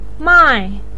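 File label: "mai", Mandarin pinyin, tone 4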